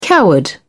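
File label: "coward", British English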